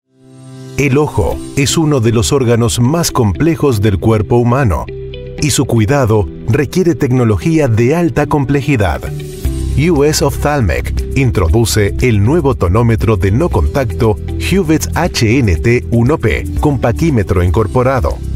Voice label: spanish voice over male narrator